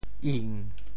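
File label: "ing7", Teochew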